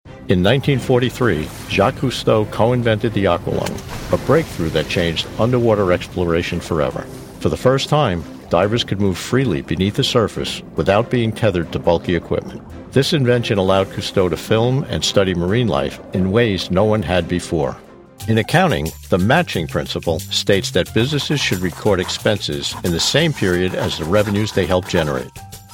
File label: Narratives